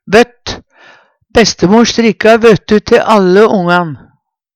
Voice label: "vott", Numedalsmål; Bestemor strikka vøttu te alle ongan.

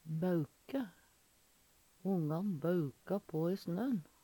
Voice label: bauke, Numedalsmål; Verb

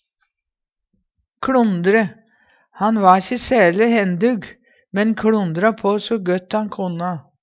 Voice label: kLondre, Numedalsmål; Han va'kje særLe hendug, men kLondra på so gøtt'n konna.

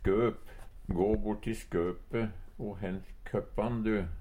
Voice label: "skop", Numedalsmål; Substantiv inkjekjønn

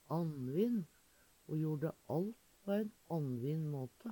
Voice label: "annvinn", Numedalsmål; Ho jorde alt på ein annvinn måte.; Adjektiv

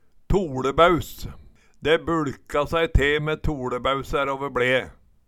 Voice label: toLebæus, Numedalsmål

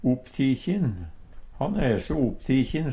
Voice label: "opptikjin", Numedalsmål; opptatt; Han e so opptikjin.; Adverb

